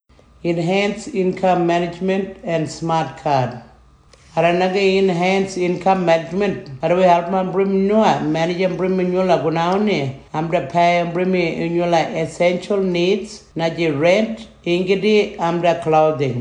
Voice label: tiwi; eim and smartcard

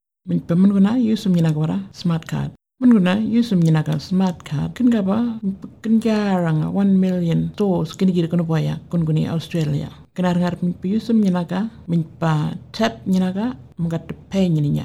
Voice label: burarra; using your smartcard

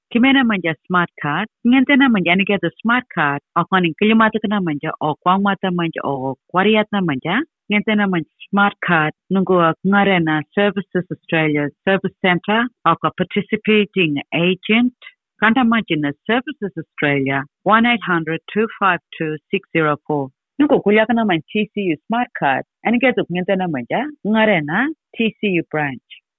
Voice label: anindilyakwa getting smartcard

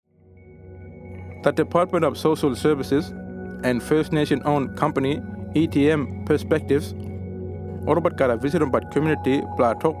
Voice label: Income Management Consult Kriol

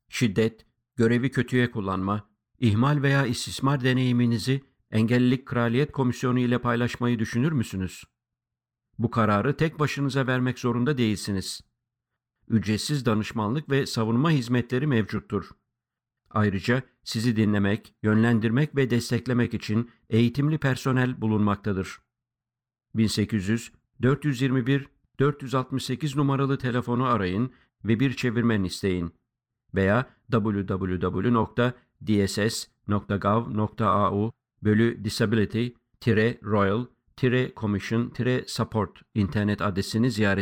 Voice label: turkish; dss disability

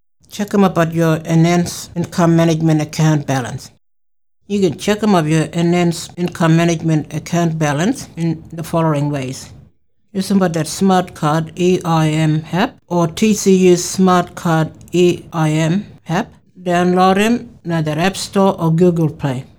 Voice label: kriol; checking your balance

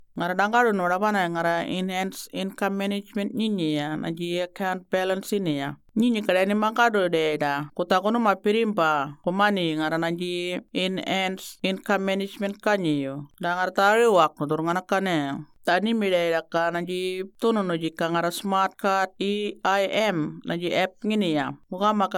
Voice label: Murrinh-Patha; Checking you EIM account balance

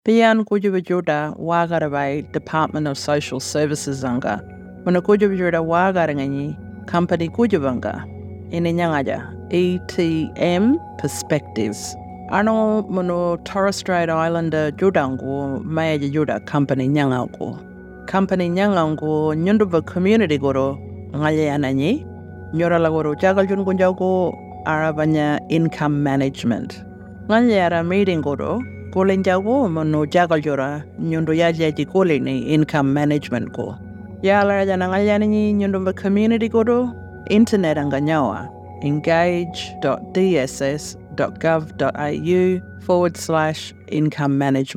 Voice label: Yankunytjatjara; Income Management Consult

